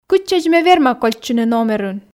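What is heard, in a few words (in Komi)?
кытчӧдз ме верма кольччыны номерын, Komi, female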